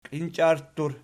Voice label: "klincharttur", Archi